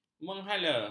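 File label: "menghala", Malay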